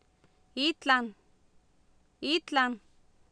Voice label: "iitlan", Oapan, female; Oapan minimal pairs 2000